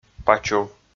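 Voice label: "пач ув", Komi, male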